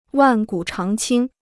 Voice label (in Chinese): "万古长青", Chinese